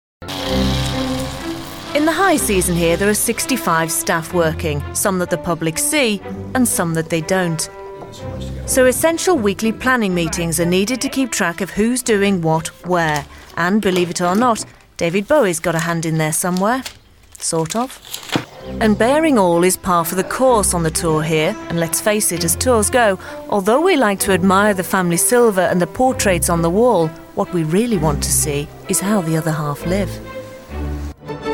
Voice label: Documentary Voice; ITV